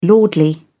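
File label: "lordly", British English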